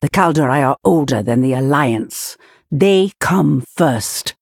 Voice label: Female Night Elf